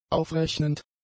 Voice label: "aufrechnend", German; adjectives